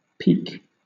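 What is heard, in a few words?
"peak", British English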